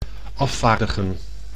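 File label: "afvaardigen", Dutch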